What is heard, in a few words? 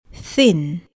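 "thin", English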